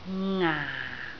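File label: "ngaa4", Cantonese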